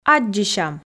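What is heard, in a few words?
"аддзысям", Komi, female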